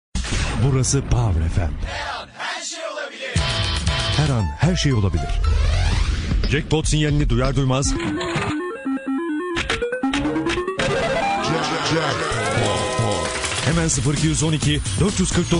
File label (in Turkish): ERKEK